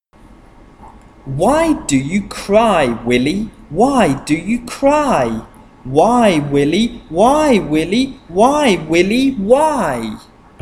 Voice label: Digraphs Chant wh